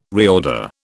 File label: "reorder", British